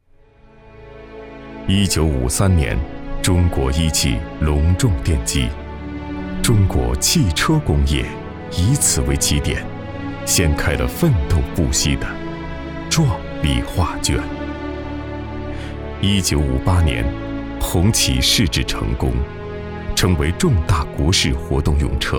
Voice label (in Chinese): 沉稳宣传; 中国一汽